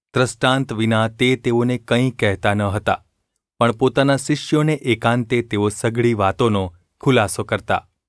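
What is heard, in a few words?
gu ulb mrk, Gujarati; mrk 4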